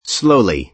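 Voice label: "slowly", American English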